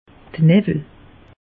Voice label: "newwel", alsace; meteo

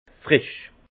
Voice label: "frisch", Alsace; vin; vif

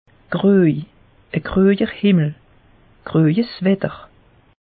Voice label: "groj", alsace; meteo

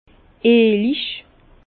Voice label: "eelig", Bas Rhin; vin; onctueux

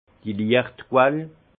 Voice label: "liecht quell", alsace; vin; source de lumière

